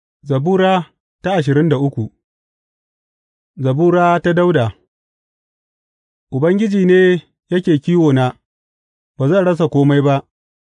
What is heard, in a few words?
hausa bible drama, psalms; hausa bsn nt drama